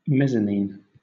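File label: "mezzanine", British English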